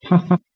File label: "patha" Iranian